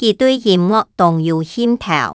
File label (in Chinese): 佢對音樂當有興頭, 客語